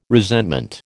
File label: "resentment", American